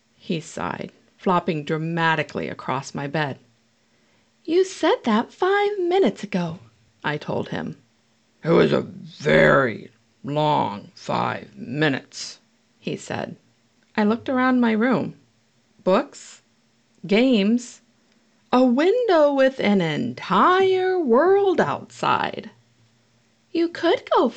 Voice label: Child Dragon